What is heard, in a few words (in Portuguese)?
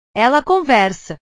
"ela conversa", portugues; conversar; portugues/verbos